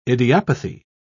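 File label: "idiopathy", English, British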